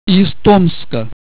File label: "tomsk", Russian